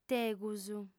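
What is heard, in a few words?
"tegus", Sardinian, female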